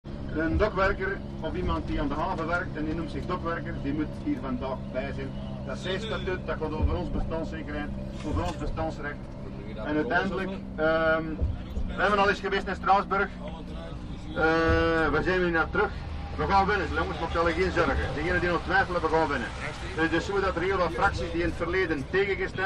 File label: bus speech